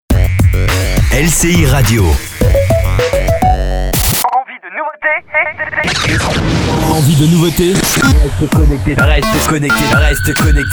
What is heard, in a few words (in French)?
Voix francophone